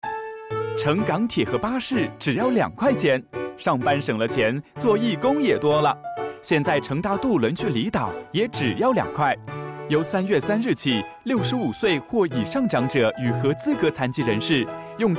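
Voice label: Mandarin Radio; 扩展至渡轮及 新大屿山巴士[2013]; 政府长者及合资格残疾人士公共交通票价优惠计划 (二元优惠计划); 普通话广播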